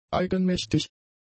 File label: "eigenmächtig", german; adjectives